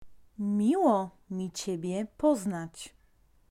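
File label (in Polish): "miło mi Ciebie poznać", polaco; Vocabulario: frases y palabras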